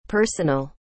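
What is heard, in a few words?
"personal", English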